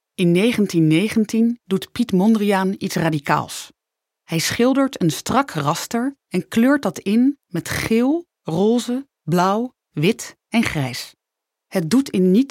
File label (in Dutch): Stemtest Museum Audiotour